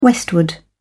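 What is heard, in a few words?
"westward", British English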